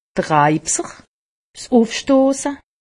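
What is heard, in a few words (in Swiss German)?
"raipser", Alsatian; medecine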